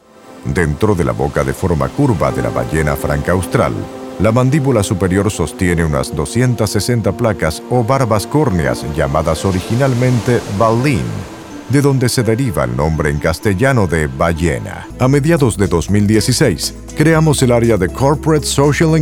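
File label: Spanish, male, neutral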